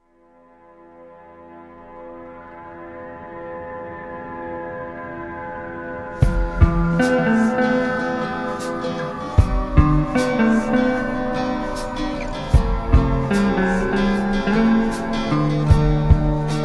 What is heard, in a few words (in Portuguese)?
MaE SOLTEIRA FEM; telemensagem dia das maes diversos